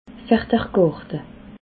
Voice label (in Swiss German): "ferdrgarte", alsace